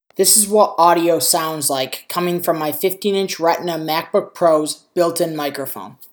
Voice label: Built in Macbook Pro Microphone